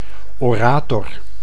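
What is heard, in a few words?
"orator", Dutch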